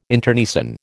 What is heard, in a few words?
"internecine", American